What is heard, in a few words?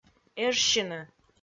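"эжсьыны" Komi, female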